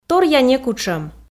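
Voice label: "торъя некутшӧм", Komi, female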